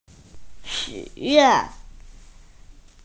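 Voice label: "make a wish", Mandarin; xu3yuan4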